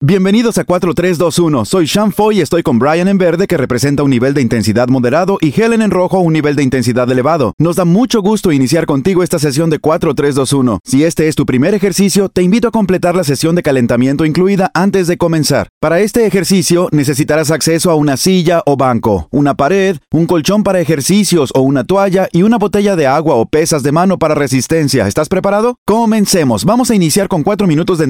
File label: spanish voice over male elearning